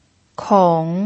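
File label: "kong" third tone, pinyin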